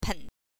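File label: "pen", Mandarin pinyin, neutral tone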